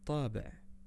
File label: stamp, arabic words audio